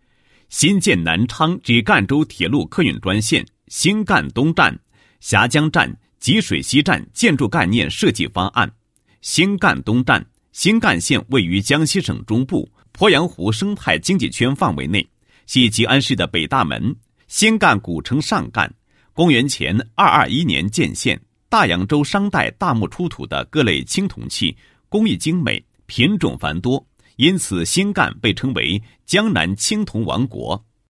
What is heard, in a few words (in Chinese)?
男 工程 概念设计方案; 南昌至赣州铁路客运专线建筑概念设计方案